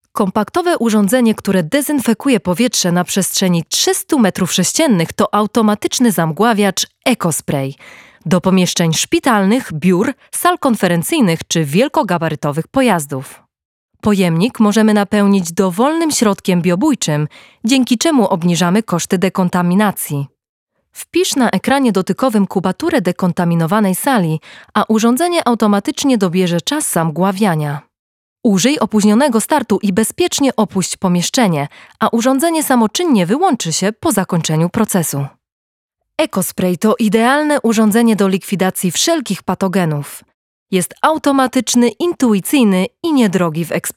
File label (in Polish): Lektor film promocyjny